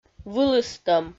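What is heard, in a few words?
"вылыстӧм", Komi, female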